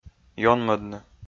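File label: "ёнмӧдны", Komi, male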